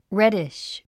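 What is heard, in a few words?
"reddish", English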